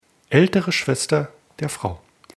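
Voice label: "alt schwester frau", German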